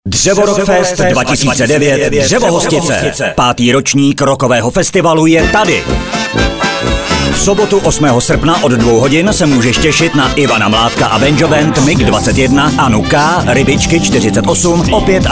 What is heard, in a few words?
radio spot; Drevorockfest 2009